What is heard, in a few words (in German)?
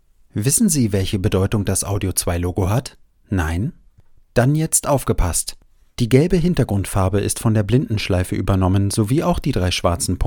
Hochdeutsch, sprechproben